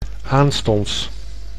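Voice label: "aanstonds", Dutch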